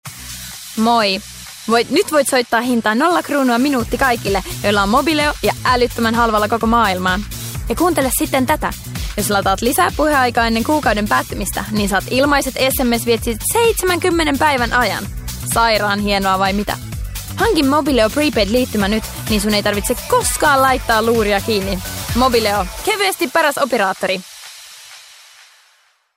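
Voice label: finnish female commercial; voice talents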